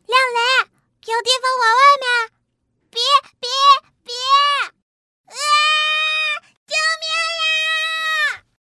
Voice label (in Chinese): 女-女童声 萌宠-4-5岁